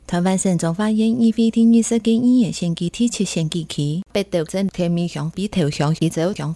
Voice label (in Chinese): 前言 客語; 彰化縣; 有聲公報; 縣議員; 第七選舉區